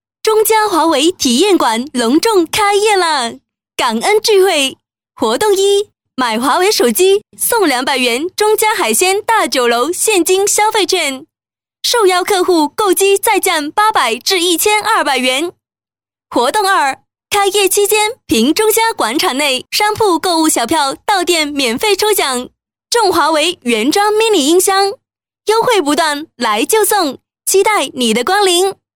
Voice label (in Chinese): 女 促销 国语; 中嘉华为体验馆